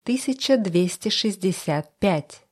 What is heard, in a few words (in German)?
russische zahl 20; russische zahl 1265; Zahlen auf Russisch